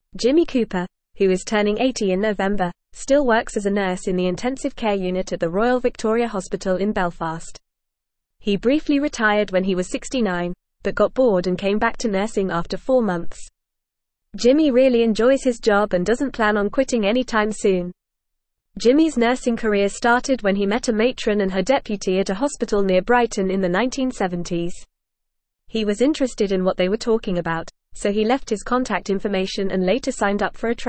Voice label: English Newsroom Upper Intermediate FAST Reading; Dedicated Male Nurse Jimmy Cooper Defies Retirement